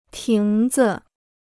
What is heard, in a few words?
"亭子", Chinese